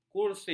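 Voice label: "kursif", Malay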